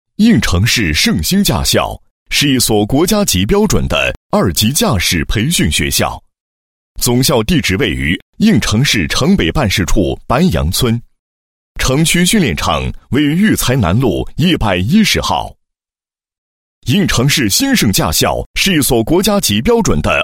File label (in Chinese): 男 学校 宣传介绍, 配音; 某驾校宣传介绍